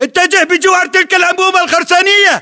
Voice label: order cover concrete pipe, battle chatter, english